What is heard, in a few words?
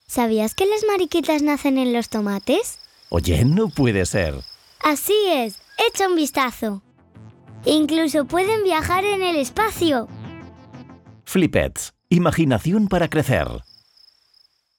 spanish animation, spanish voice actors